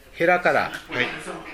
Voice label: "herakara", minamiyamagata